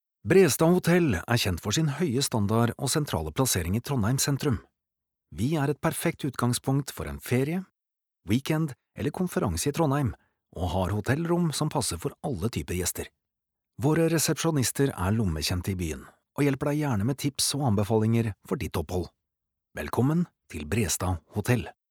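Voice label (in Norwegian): no informativ, Norwegian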